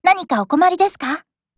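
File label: DIALOGUE, Japanese; PP2000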